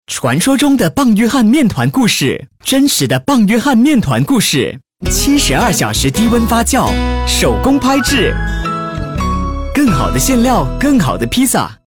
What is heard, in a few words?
Mandarin (CHINA ACCENT) - Proper, Friendly, Announcer; Papa John's CHINA